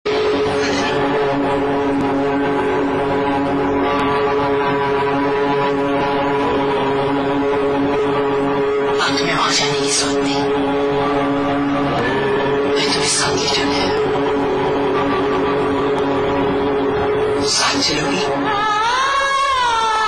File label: Dialogue with Music; dharti ka veer yoddha prithviraj chauhan